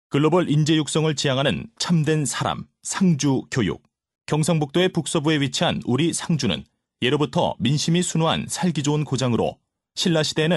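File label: Korean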